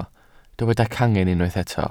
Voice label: "cangen", Welsh

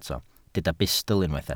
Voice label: "bustl", welsh

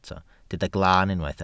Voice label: "glaan", welsh

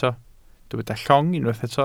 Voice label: "llong", welsh

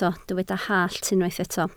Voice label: "hallt", Welsh

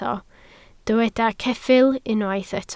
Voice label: "ceffyl", Welsh

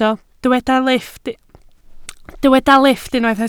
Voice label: "lifft", Welsh

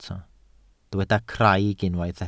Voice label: "craig", Welsh

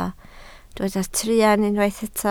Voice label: "truan", Welsh

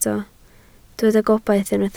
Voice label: "gobaith", Welsh

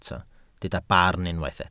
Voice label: "barn", welsh

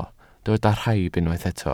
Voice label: "rhaib", welsh

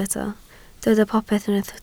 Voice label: "popeth", Welsh

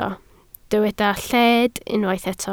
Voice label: "lled", Welsh

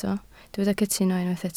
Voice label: "cytuno", Welsh

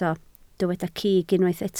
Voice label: "cig", Welsh

